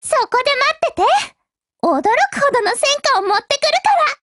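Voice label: operation begin, voice, Japanese; GF Alarm; C93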